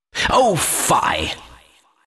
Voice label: announcer death; dlc kleiner